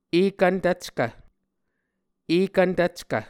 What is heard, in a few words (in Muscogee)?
"ekvn tvckv", Muscogee